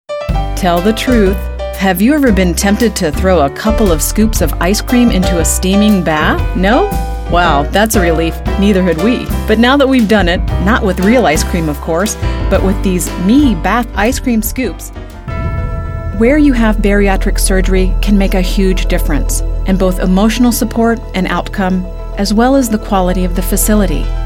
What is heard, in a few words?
English, english females